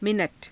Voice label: "mina_ta", Hindi